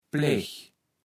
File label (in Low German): "Plech", kaevels platt